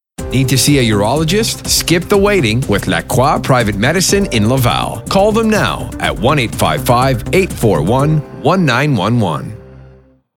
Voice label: male voice; Clinique Medicale Lacroix; Urologist; radio et tele